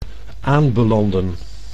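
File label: "aanbelanden", Dutch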